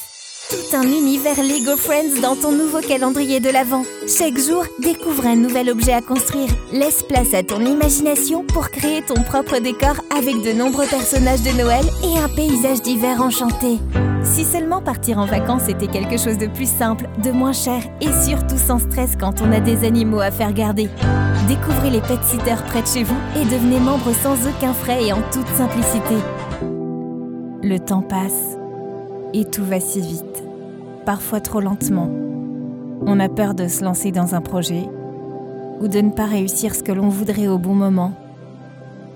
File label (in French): VOIX OFF FEMININE STUDIO